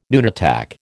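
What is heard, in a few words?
"nunc dimittis", American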